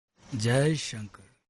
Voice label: Jai Shankar Soft Calming Ringtone